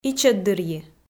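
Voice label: "ичӧтдырйи", Komi, female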